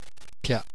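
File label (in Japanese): "pya", Japanese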